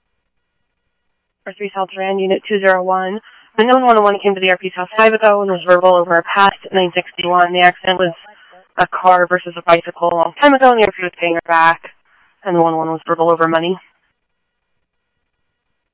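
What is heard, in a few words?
Sheriff East County, scanner audio trunk; ThompsonPeakScot; MCSO - EAST; Sheriff-EastCnty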